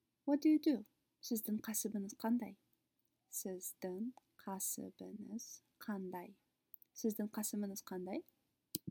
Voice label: What do you do, kazakh